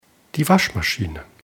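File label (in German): "waschmaschine", German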